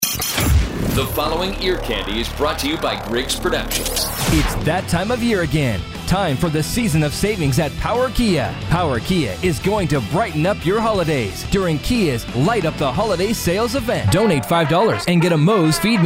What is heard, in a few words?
Commercial